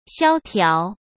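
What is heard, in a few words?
"萧条", Mandarin Chinese; chinese flashcards; hsk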